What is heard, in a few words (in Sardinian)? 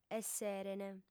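"esserent", female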